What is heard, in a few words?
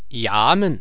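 "yamen", Chinese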